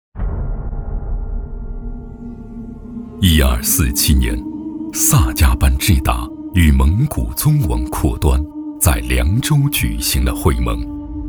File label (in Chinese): 男 纪录 人文 片 人文讲述; 萨迦班智达